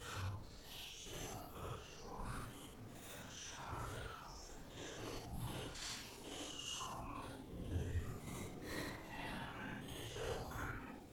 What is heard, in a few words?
Horror Talk